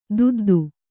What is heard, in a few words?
"ದೊದ್ದೆ", Kannada